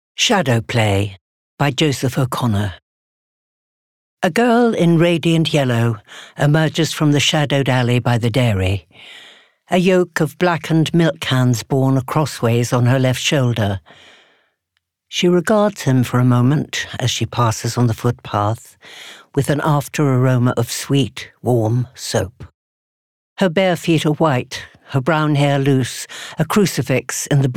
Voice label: Audiobook; Shadow Play